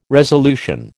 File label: "resolution", American